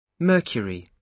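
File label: "mercury", British English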